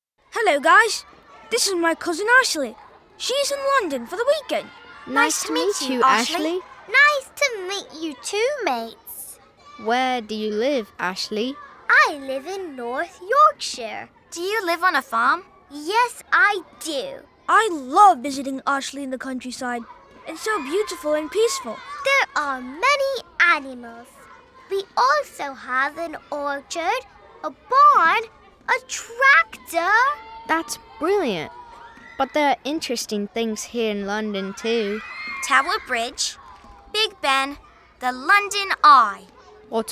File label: Dialogo Children British; Áudios para Conteúdos Educacionais